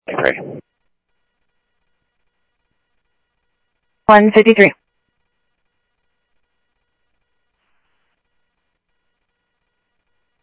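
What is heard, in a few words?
Sheriff Lake Patrol, scanner audio; MCSO Lakes 1 SheriffLakePatro; ThompsonPeakScot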